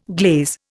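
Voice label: "glazier", Indian; Dictionary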